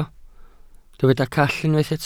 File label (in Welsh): "call", Welsh